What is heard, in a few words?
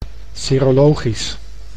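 "serologisch", Dutch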